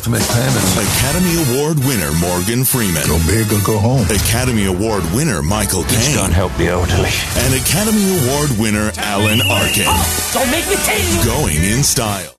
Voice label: Movie Trailer